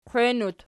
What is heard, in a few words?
"qwein", Archi